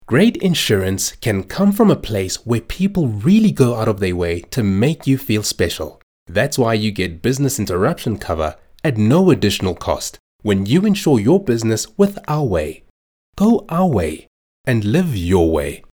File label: English Corporate; OSM Talent